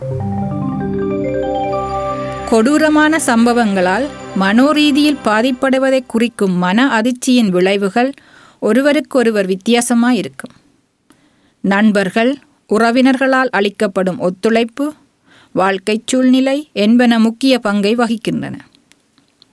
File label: Tamil